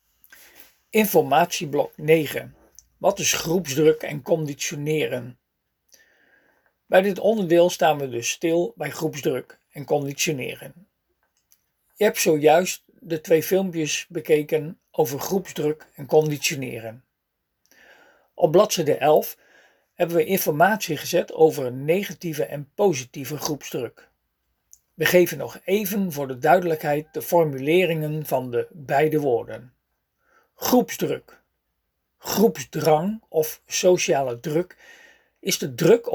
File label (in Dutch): spraak instructie